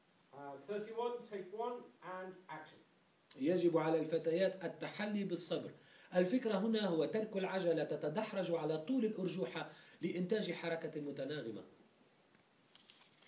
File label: arabic tv